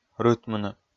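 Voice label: "рытмыны", Komi, male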